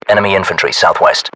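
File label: threat infantry south west, battlechatter British; localized english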